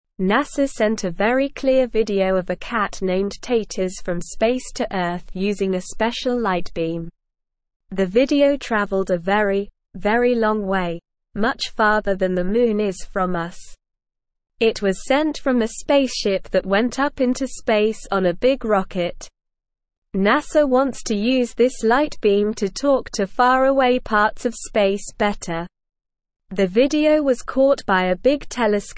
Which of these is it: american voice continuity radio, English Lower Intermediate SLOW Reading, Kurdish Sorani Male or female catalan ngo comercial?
English Lower Intermediate SLOW Reading